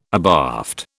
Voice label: "abaft", British